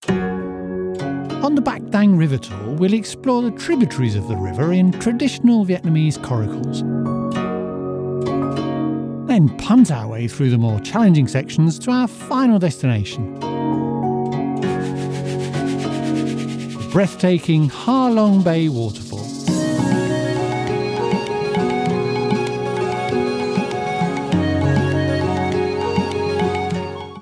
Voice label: Vietnam river tour with backing music, Genuine Articulate Believable Real British Voice; Tour Guide